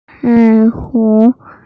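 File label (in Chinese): "cai3 hong2" Mandarin Chinese, female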